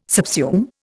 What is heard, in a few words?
"subsume", Indian